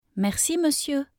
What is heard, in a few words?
"merci monsieur", French; thank you